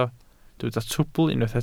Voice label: "trwbl", Welsh

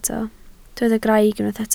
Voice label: "craig", Welsh

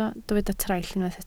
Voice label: "traill", Welsh

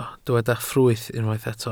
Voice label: "ffrwyth", Welsh

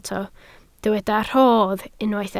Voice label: "rhodd", Welsh

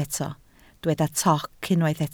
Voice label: "toc", Welsh

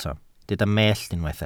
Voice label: "mellt", welsh

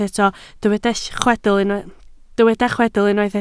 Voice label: "chwedl", Welsh

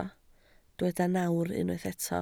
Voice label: "nawr", Welsh

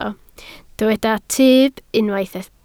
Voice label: "tyb", Welsh

